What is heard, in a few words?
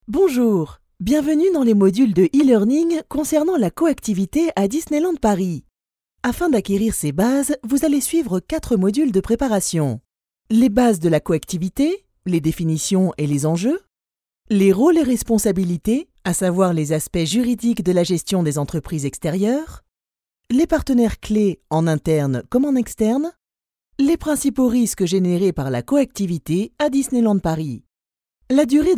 ELEARNING; DISNEYLAND PARIS; voix off